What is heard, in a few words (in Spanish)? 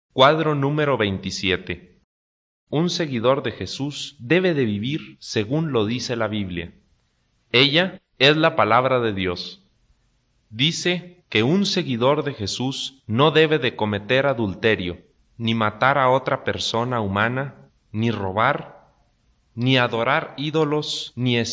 good news spanish mexico